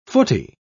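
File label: "footie", British English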